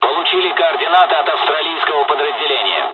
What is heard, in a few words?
dialogs russian; War Thunder